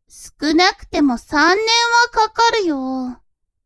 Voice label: woman